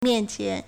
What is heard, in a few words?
"mian4qian2", Chinese